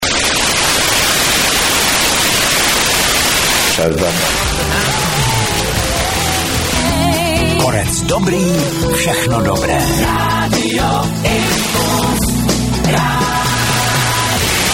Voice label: czech id